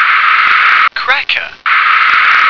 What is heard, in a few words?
"cracker", English